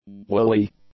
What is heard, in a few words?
"woolly", english; adjectives